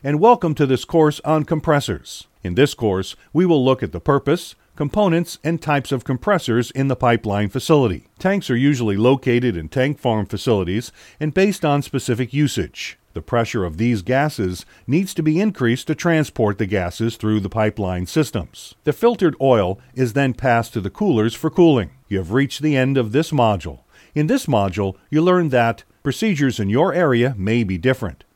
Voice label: eLearning Sample